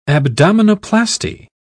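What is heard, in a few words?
"abdominoplasty", British English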